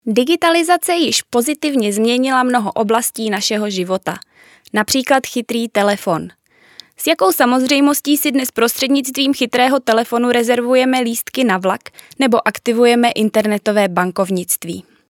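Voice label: female, Czech, narration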